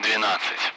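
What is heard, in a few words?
Speech, Russian, Numbers, 12; Allied Flight; DCS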